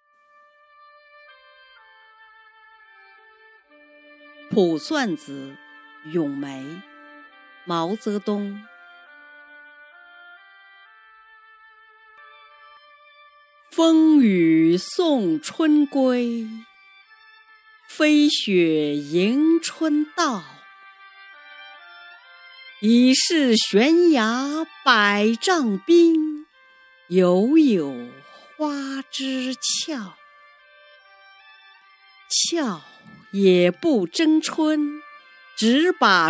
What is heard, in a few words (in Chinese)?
女配音, 中年（36-54）, 普通话; 卜算子·咏梅